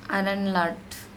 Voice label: "aran laTH" startle, andamanese